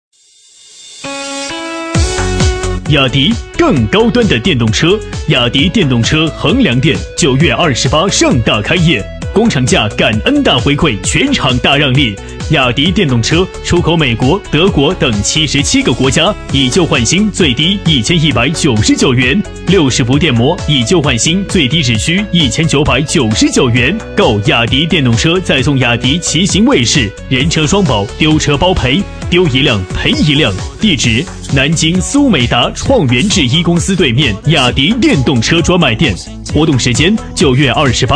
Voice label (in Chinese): 男 促销 电动车, 促销男配; 雅迪电动车